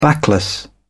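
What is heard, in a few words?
"backless", British English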